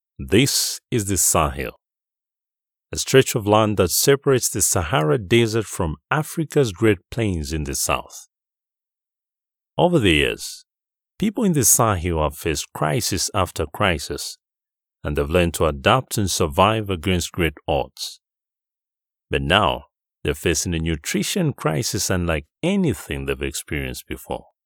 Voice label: Documentary